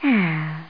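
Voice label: FLIRTATI flirtatious; Zak; Simon and Schuster Interactive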